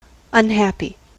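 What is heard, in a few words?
"unhappy", US English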